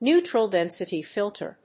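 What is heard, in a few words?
"Neutral density filter", English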